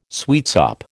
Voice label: "sweetsop", American

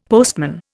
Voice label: "postman", Indian; Dictionary

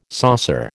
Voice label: "saucer", American

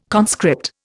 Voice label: "conscript", Indian

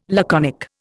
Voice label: "laconic", Indian

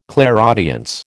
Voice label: "clairaudience", American

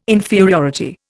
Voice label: "inferiority", Indian

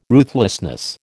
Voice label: "ruthlessness", American

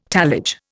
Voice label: "tallage", Indian

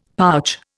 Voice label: "pouch", Indian; Dictionary

